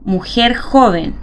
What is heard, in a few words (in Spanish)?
Mujer joven